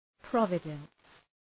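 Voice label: "providence", English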